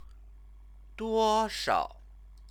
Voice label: "duoshao", Chinese; 多 少; how much; question word